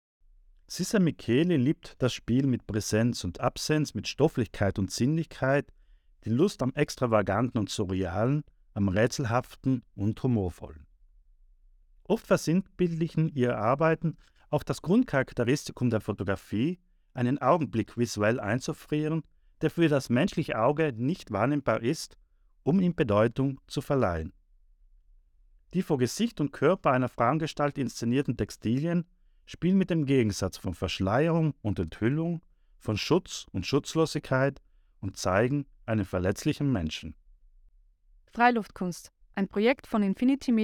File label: Audioguide; Freiluftkunst